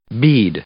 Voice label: "bead", English; noun; i-ɪ

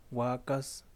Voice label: "vaca", Yaqui; Vocabulary

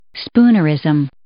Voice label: "spoonerism", English

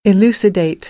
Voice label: "elucidate", American English; ə - ɪ

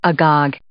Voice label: "agog", English

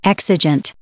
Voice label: "exigent", English

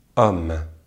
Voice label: "homme", French; Vocales orales en francés; easypronunciation

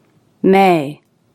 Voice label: "may", stop t; English

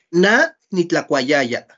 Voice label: yo comía na nitlakuayaya I ate, Náhuatl

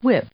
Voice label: "whip", English; noun; i-ɪ

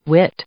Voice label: "whit", English; noun; i-ɪ

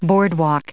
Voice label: "boardwalk", English

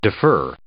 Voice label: "defer", English

